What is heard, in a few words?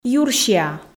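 "юрсиа", Komi, female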